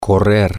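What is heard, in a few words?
to run, Spanish, male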